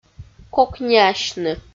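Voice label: "кокниасьны", Komi, female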